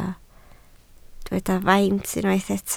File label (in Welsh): "faint", Welsh